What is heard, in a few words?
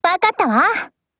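ACCEPT, Japanese; MicroUZIMod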